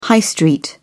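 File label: "high street", English, British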